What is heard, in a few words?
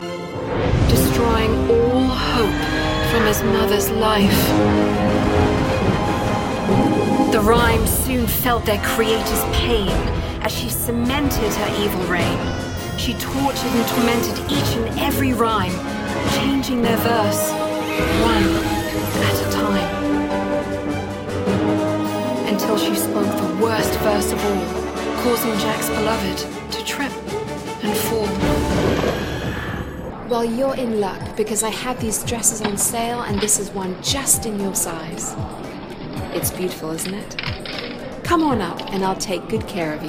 VO British; Women Voice Over Talent